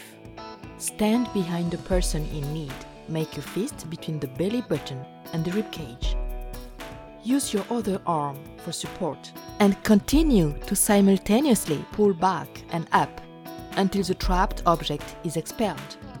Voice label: Heimlich Convincing Serious, elearning voice over